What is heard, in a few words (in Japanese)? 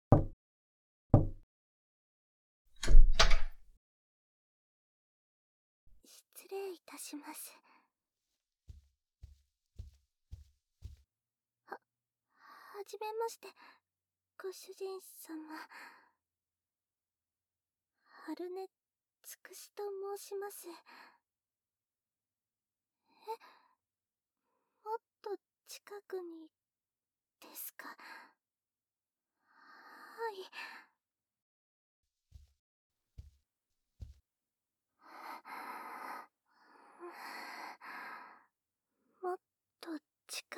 恥じらい自己紹介からのゾクゾク耳舐めご奉仕, ASMR